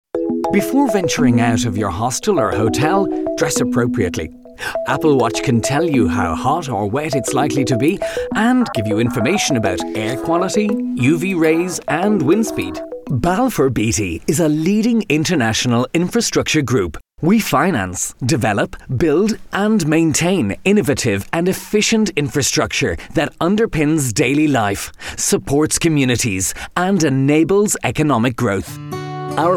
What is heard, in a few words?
Irish Male, elearning voices